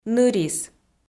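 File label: "нырис", Komi, female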